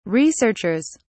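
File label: "researchers", British English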